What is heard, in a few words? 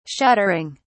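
"shuttering", British English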